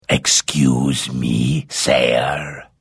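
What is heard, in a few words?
Hello, Vo; Morrowind GOTY GOG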